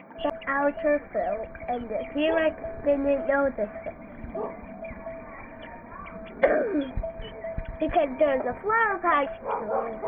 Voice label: ambient rural child talking severe